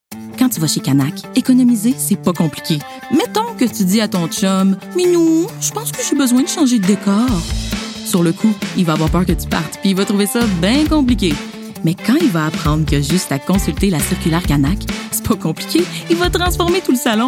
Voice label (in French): Publicité; Canac